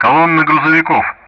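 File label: Speech Sound Russian; DCS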